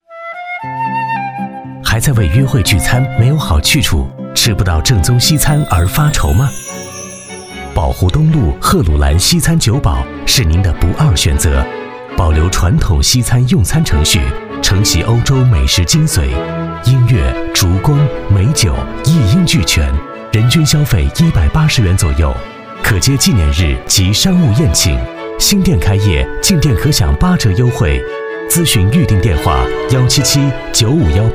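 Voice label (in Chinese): 男 专题（尊贵 品质）; 赫鲁兰西餐酒堡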